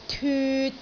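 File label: "tyut3", Cantonese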